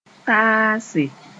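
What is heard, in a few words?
"taase", Mooré; cause to arrive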